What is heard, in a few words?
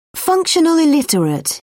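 "functional illiterate", British English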